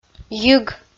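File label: "йиг", Komi, female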